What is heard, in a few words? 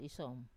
"isom", Wamesa; I worship